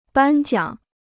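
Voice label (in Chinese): "颁奖" Chinese; bān jiǎng; มอบรางวัล; ให้รางวัล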